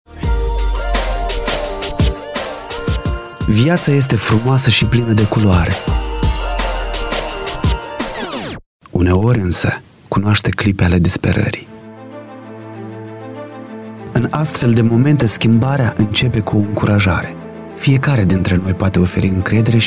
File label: radio spot; ziua mondiala de prevenire a suicidului; ghete